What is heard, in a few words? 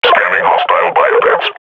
combat idle, grunt; Half Life Model Pack